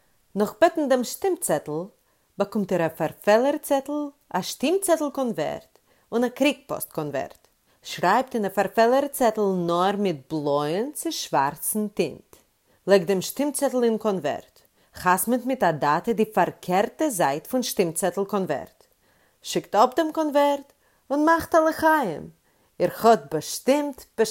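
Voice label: Yiddish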